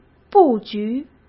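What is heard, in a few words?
"布局", Mandarin Chinese; chinese-flashcards; hsk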